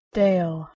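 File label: "dale", English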